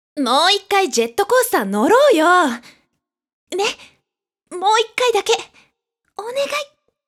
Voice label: 女子

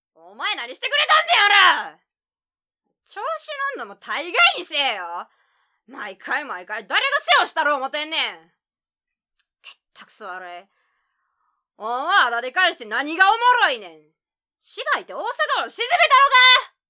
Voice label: セリフ 関西弁

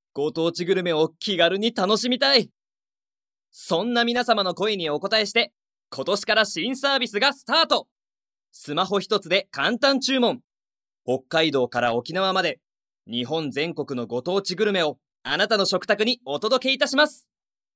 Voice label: ナレ

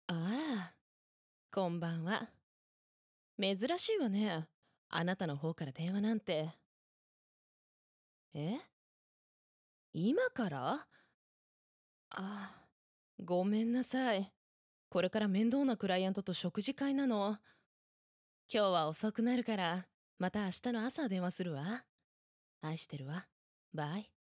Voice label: 女性

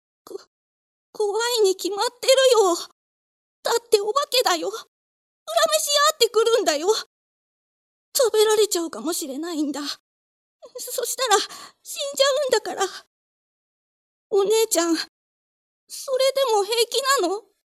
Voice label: 怖がりな男の子

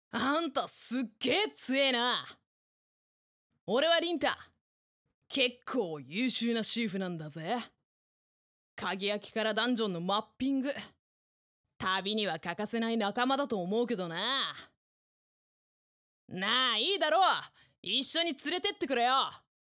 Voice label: 少年

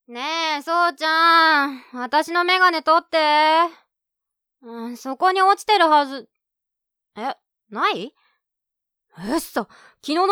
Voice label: セリフ 成人女性

